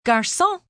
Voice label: "Garcon", foreign, female, French; Waiter; VoiceBox